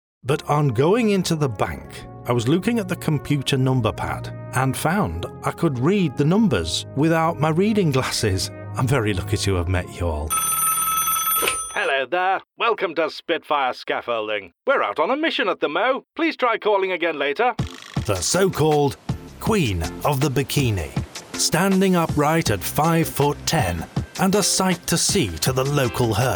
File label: Character